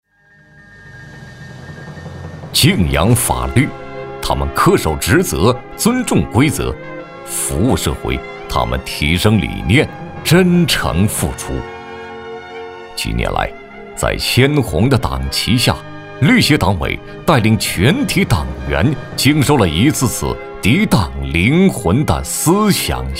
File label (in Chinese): 男国 党建 党建引领 力度